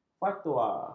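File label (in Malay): "fatwa", Malay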